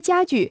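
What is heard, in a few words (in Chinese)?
"家具" Chinese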